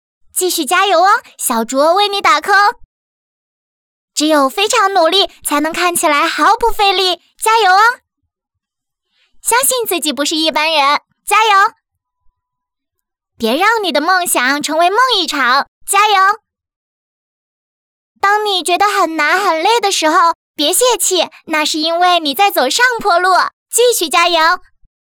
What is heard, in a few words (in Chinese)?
角色 少女感